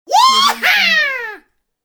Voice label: cartoon voice yeehaw